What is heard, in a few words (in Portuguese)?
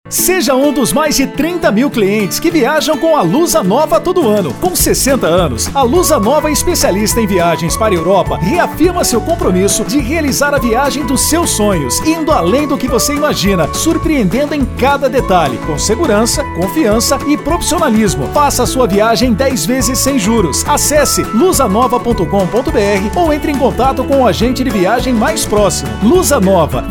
institucional; Lusanova